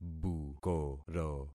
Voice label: "bukoro", concat syllables; Affixing experiment

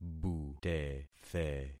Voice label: "butethe", concat syllables; Affixing experiment

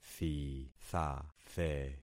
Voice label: "thithathe", concat syllables; Affixing experiment

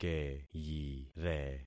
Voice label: "keyire", concat syllables; Affixing experiment

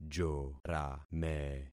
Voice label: "jorame", concat syllables; Affixing experiment

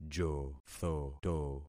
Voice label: "jothoto", concat syllables; Affixing experiment

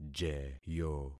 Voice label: "jeyo", concat syllables; Affixing experiment

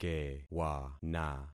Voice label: "kewana", concat syllables; Affixing experiment

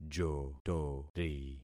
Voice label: "jotori", concat syllables; Affixing experiment

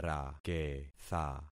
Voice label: "raketha", concat syllables; Affixing experiment

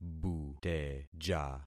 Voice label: "buteja", concat syllables; Affixing experiment

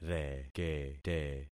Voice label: "rekete", concat syllables; Affixing experiment

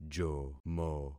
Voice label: "jomo", concat syllables; Affixing experiment

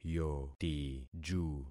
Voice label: "yotiju", concat syllables; Affixing experiment

